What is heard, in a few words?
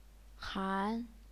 "han2", chinese pinyin